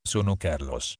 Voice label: "sono Carlos", Italian

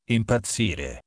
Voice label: "impazzire", Italian

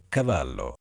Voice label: "cavallo", Italian

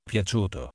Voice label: "piaciuto", Italian

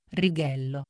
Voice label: "righello", Italian

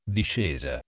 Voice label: "discesa", Italian

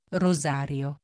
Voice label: "rosario", Italian

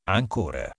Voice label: "ancora", Italian

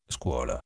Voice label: "scuola", Italian

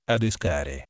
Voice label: "adescare", Italian

